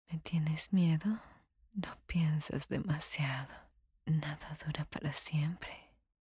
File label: Sensual